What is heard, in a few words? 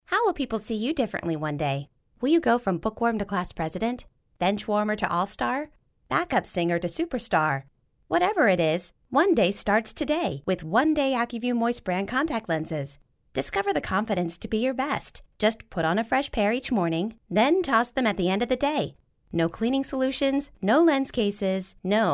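US, commercial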